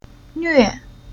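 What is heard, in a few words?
"Nve", Chinese syllable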